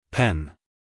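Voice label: "pen", American English male; everyone-can-use-english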